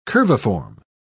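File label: "curviform", British English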